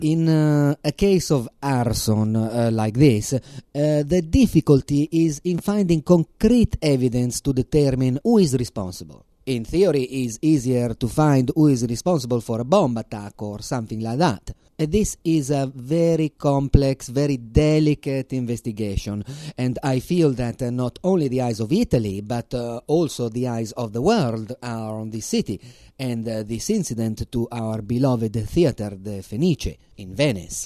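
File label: Italian, English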